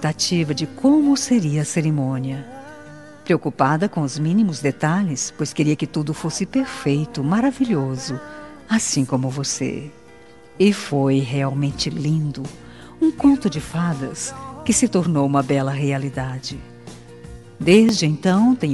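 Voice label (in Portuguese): aniver fem, Telemensagens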